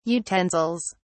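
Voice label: "utensils", British English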